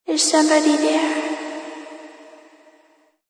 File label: Is Somebody, Female; Talking Sound Effects; Sound Effects:Voice; VoiceBox